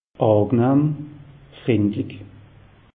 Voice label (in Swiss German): "angenahm", alsace; meteo